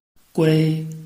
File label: "gui1", Chinese